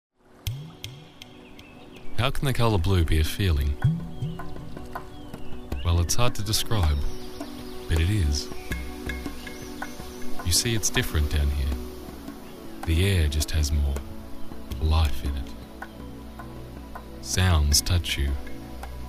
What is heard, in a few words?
Voice Over